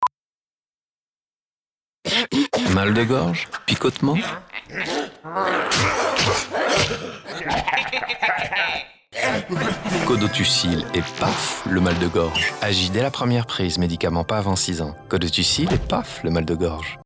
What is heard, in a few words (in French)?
PUB TV; CODOTUSSYL